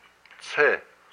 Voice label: "stone", hupa